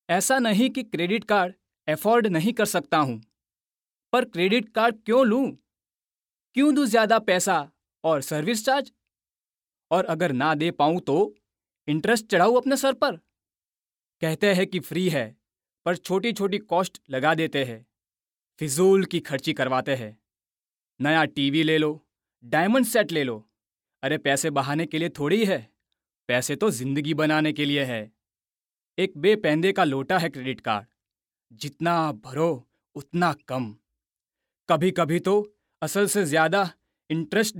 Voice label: promo hindi; lic